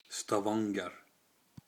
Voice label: Stavanger Male

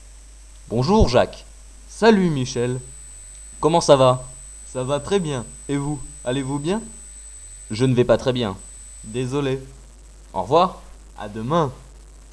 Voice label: French Exercise Basic Phrases

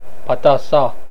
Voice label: "Patassa", Cajun French

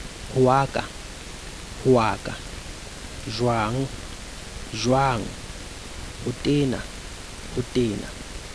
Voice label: Sesotho tone semant

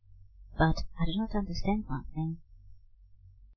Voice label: Kid; Fat Penguin

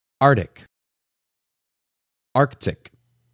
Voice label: Arctic Disputed Pronunciation

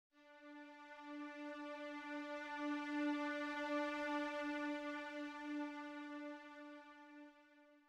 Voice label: sad

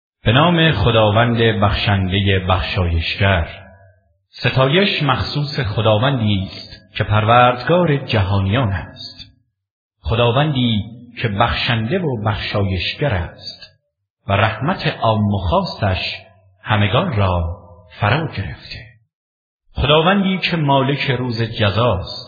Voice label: Persian Audio Quran Translation

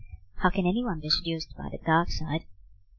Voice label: Kid; Fat Penguin

